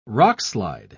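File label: "rockslide", British English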